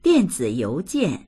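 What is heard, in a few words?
"dian4zi3you2jian4", Mandarin Chinese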